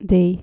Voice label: "day" dutch

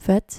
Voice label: "vet", Dutch

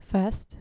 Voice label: "fast", dutch

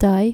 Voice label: "taai" dutch

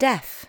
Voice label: "deaf", english

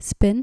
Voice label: "spin", Dutch

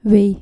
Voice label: "way", dutch

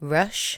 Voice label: "rush", L2 English

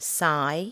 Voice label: "saai", english

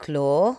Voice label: "claw", english

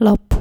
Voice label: "lap", Dutch

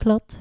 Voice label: "klad", Dutch

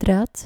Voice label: "draad", Dutch

